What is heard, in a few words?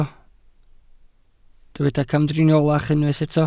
"camdriniolach", Welsh